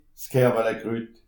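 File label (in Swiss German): "kerwelskrut", Alsatian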